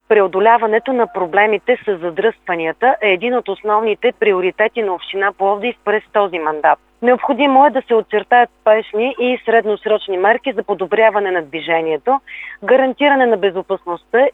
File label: traffic news; Plovdiv